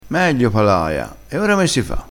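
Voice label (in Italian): "meglio palaia", dialettale crespinese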